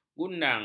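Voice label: "gudang", Malay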